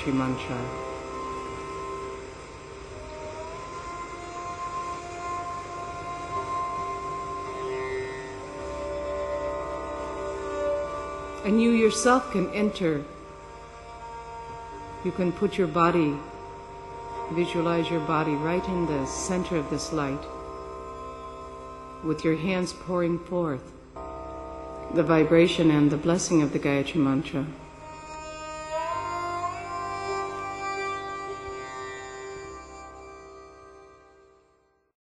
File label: Meditation Water